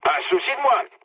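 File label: player killed enemy, mood low, French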